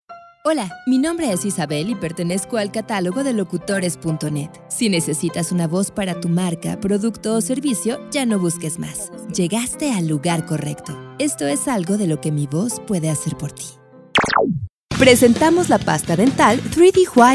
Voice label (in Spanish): locutora mexicana; mexico